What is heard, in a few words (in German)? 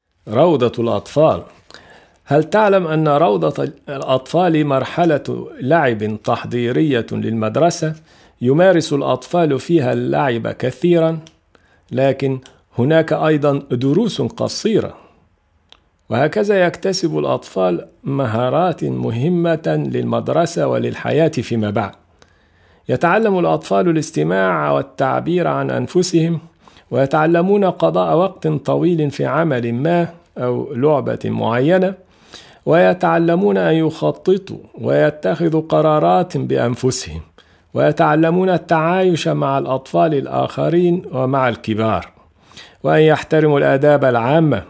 arab